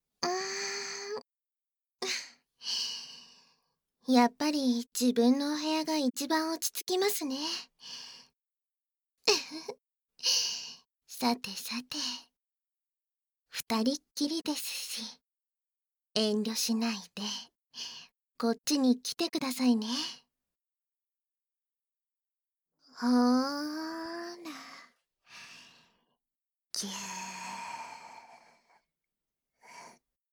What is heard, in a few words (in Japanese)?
囁き甘やかし寝かしつけ（バイノーラルマイク収録 効果音なし）, ASMR